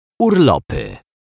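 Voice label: "urlopy", Polish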